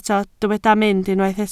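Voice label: "mynd" Welsh, spoken word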